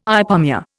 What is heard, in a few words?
"ipomoea", Indian